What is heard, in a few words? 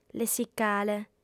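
"lessicale", female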